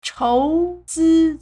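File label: "chóuzī", Chinese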